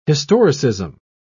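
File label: "historicism", British English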